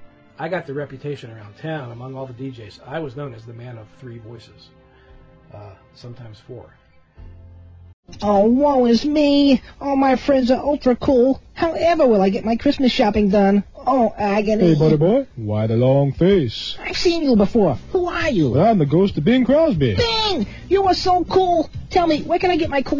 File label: Budget Commercial with intro